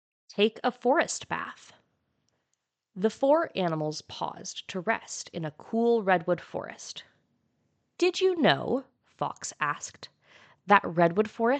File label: Nature Story English; CuriOdyssey; Take a Forest Bath